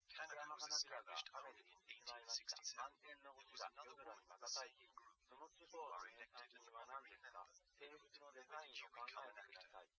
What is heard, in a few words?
male, liverec, src; SiSEC08; SiSEC underdetermined